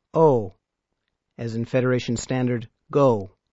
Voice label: Klingon sounds o, Letter o, as in go

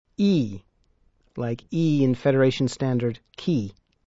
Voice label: Klingon sounds Iy, Sound Iy, as in key

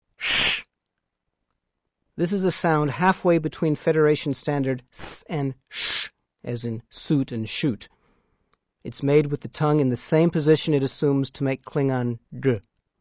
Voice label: Klingon sounds S, Letter S

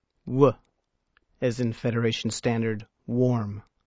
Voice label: Klingon sounds w, Letter w, as in warm

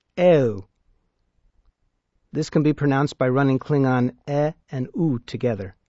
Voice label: Klingon sounds ew

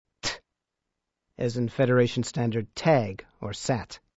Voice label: Klingon sounds t, Letter t, as in tag

